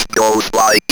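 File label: ROBVOX, robot voice; E-Lab; X Static Goldmine 1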